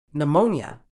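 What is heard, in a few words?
"pneumonia", medical English, British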